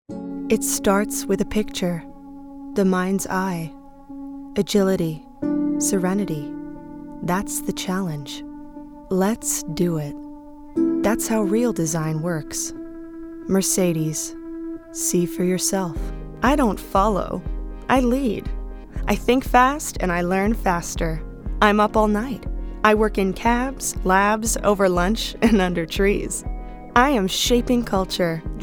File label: COMMERCIAL VOICE REEL